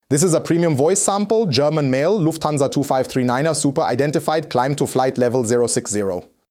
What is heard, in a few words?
German